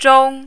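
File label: "zhong1", mandarin, high level tone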